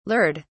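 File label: "lured", British English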